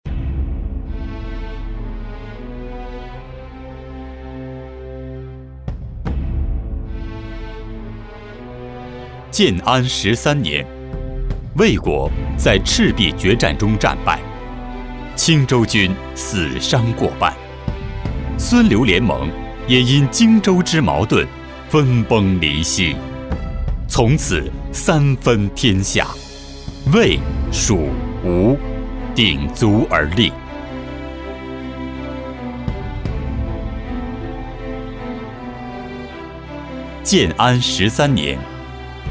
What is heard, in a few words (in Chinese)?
男89-游戏预告, 国语男声配音; 三国游戏